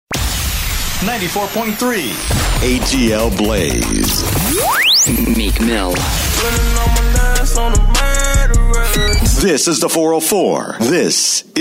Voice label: CHR Rhythm Urban